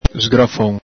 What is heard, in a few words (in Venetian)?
"sgrafon", Veneto